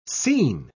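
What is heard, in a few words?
"sene", English, British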